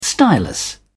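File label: "stylus", English, uk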